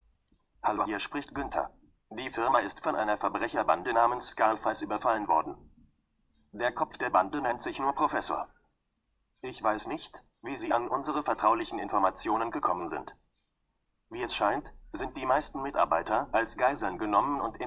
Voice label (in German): sprachnachricht